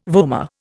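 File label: "vomer", Indian English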